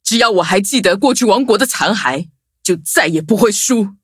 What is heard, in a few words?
loading, zh-hant; cookie run